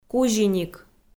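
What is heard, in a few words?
"кузиник", Komi, female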